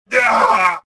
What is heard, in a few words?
choke; XBOX; weequay